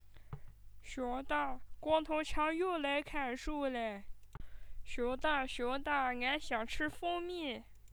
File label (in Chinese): 模仿; 熊二